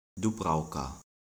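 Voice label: "Dubrawka", Upper Sorbian; Дубрауке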